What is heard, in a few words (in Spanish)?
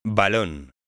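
"balon", Spanish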